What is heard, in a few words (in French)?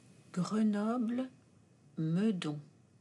prononciation e grenoble; fr; oral